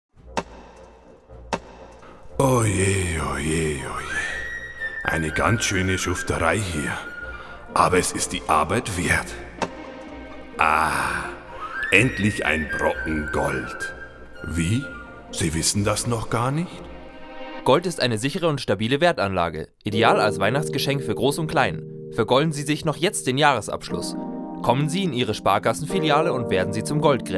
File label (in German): Werbung Weihnachten; Sparkasse